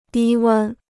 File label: "低温", Chinese